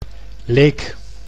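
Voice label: "Leek", Dutch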